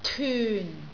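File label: "tyun4", Cantonese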